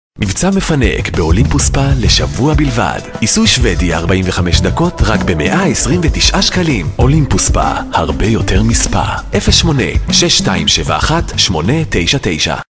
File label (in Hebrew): קריינות; אולימפוס ספא